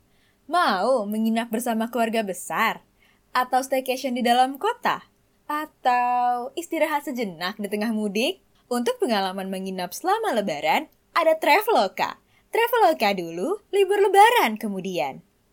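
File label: Indonesian casual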